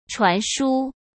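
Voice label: "传输", Mandarin Chinese; chinese flashcards; hsk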